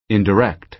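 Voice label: "indirect", American English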